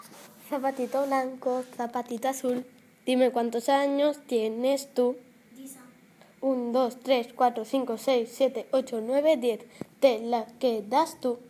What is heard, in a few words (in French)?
Am Stram Gram, Espagnol